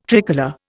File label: "tricolour", Indian